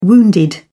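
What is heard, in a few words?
"wounded", British English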